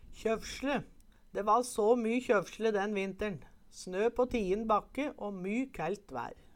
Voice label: kjøfsle, Numedalsmål

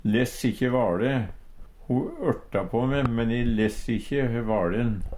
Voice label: less ikkje vaLe, Numedalsmål; brydde seg ikkje om; Uttrykk

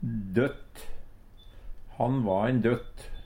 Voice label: "døtt", Numedalsmål; Substantiv hankjønn

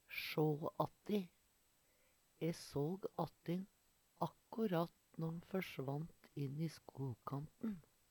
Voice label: sja atti, Numedalsmål; skimtar; E såg atti`n akkurat før`n førsvant borti skogkanten.; Uttrykk

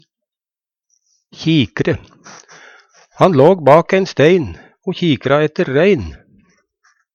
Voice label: kikre, Numedalsmål

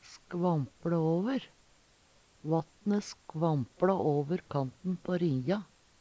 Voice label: skvample aver, Numedalsmål; Verb; Vattne skvampLa åver kanten på rinja.

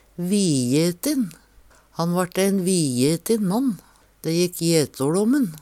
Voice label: "vijetin", Numedalsmål; Han vart ein vijetin mann. Dæ jekk jetoL om`n.; Adjektiv

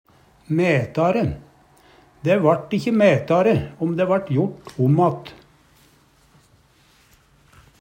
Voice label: mætare, Numedalsmål; Adverb

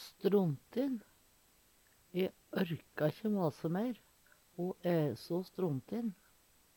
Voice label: strontin, Numedalsmål; Adjektiv

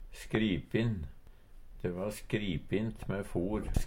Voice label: "skripin", Numedalsmål; Adjektiv

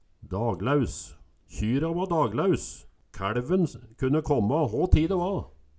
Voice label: "daglaus", Numedalsmål; Ordet er og bruka om å være pissetrengt; nest før.

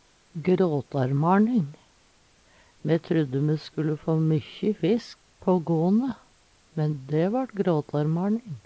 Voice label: gråtarmaLning, Numedalsmål

